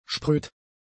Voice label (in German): "spröd", German; adjectives